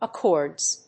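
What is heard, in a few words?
"accords", English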